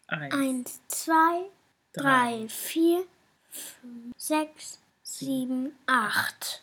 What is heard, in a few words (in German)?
rhythmisch zählen laut